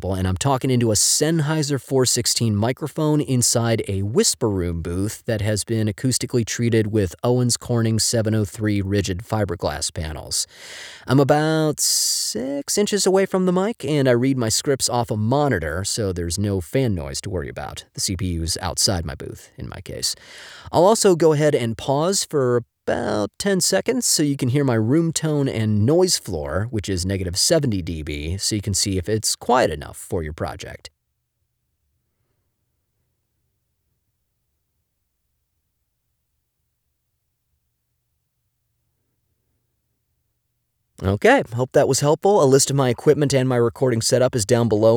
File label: Home Studio Audio Sample